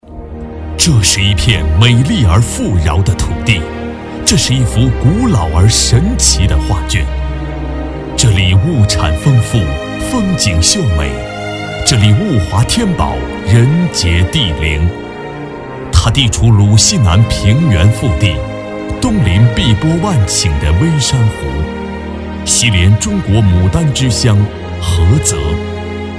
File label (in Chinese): 男 专题 城市宣传片 抒情